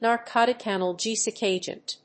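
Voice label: "narcotic analgesic agent", English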